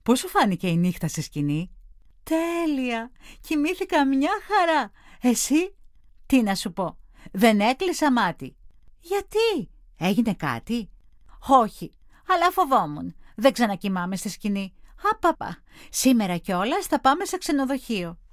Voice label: dialog